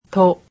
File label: japanese alphabet "to"